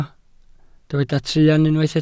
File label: "truan", Welsh